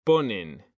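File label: "ponen", Spanish, male; mekanta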